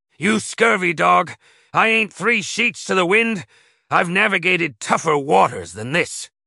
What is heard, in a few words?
upset; schooner; fertig classic games